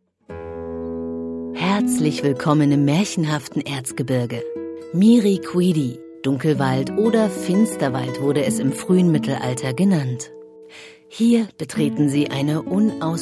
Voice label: Hoerbuch Einleitung; Maerchenhaftes Erzgebirge; LOFT VOICES

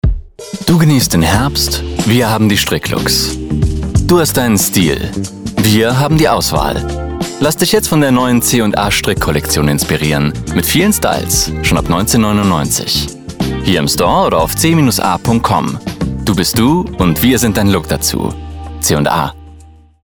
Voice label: Instore

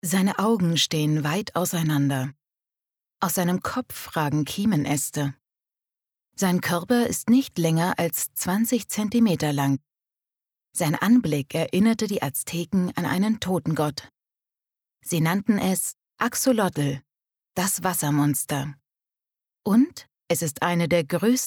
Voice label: Dokumentarischer Text; LOFT VOICES

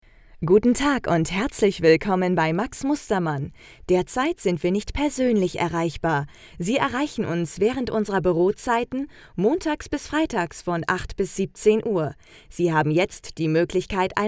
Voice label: Anrufbeantworter; LOFT VOICES

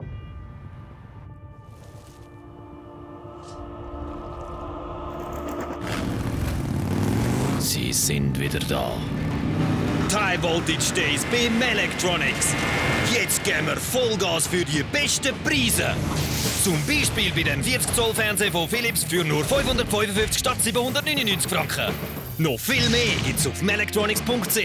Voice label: Werbung SCHWEIZ; melectronics

